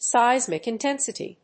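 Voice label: "seismic intensity", English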